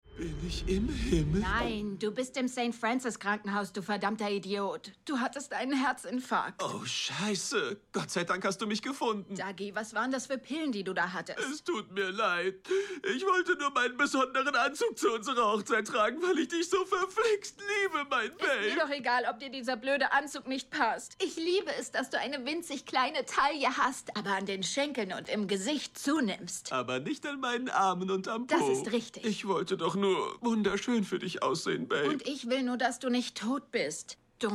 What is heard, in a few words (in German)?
Synchron Comic; LOFT VOICES